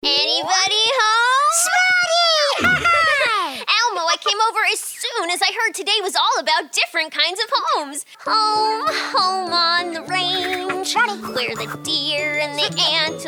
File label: ANIMATION